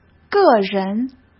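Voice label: "个人", Mandarin Chinese; hsk; chinese-flashcards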